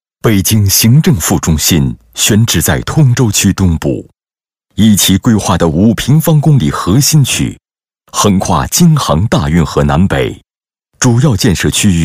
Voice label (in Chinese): 男, 专题; 展馆规划汇报